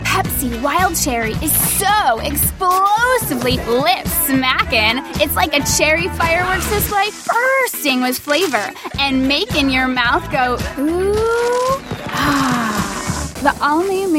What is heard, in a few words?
commercial